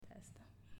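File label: "Testa", Italian, female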